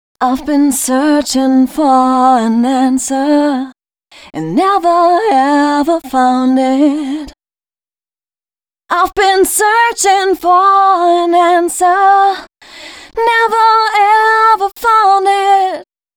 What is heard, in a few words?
female 138, hooklines, Dance Ultra Voice; Mutekki Media; Dance Ultra Voice VOL-1